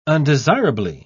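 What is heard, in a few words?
"undesirably", British English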